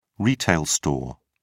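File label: "retail store", English, British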